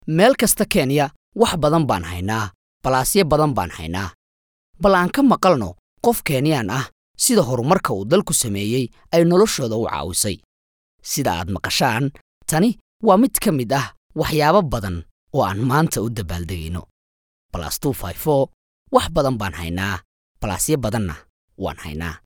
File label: SOMALI